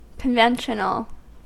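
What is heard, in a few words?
"conventional", US English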